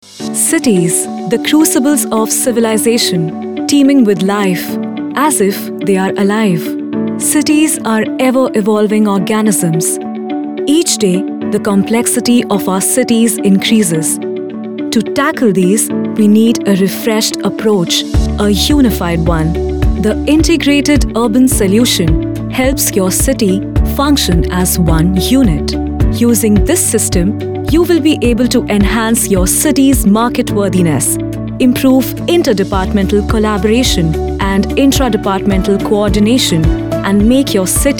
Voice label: Business VO Sample